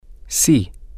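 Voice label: yes, spanish, male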